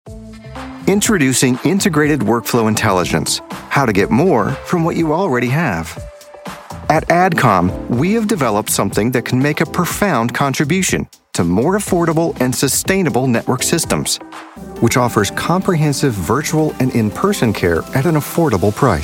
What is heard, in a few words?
corporate